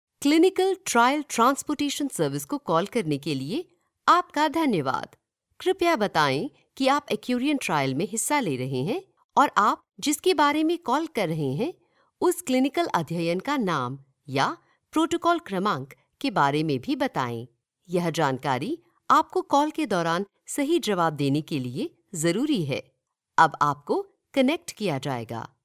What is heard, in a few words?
female hindi voice over upbeat